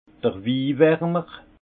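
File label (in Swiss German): "win warmer", Haut Rhin; vin; chauffe vin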